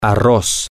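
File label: rice, spanish, male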